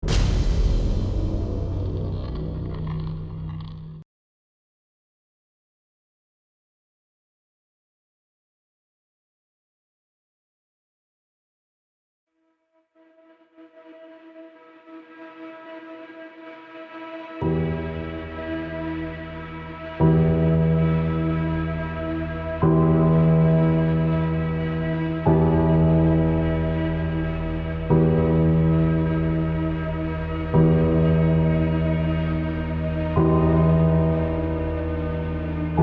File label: Trailer audio VO MUS; Burning